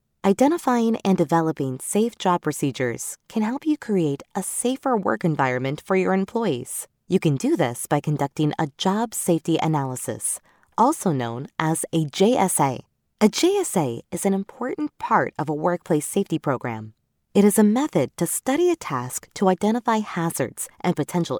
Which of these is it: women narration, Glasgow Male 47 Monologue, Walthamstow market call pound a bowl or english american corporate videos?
english american corporate videos